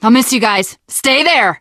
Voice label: jumping off bridge, teengirl; Left 4 Dead; Source Engine; video games

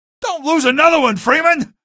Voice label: evac casualty, male; video games; npc; source engine; episodic; episode 1

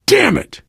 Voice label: swear, player survivor voice biker

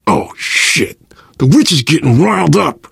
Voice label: witch getting angry, survivor voice; source engine; left 4 dead 2

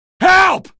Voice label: alert antlions, male; video games; npc; source engine; episodic; episode 1

